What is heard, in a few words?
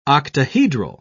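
"octahedral", English, British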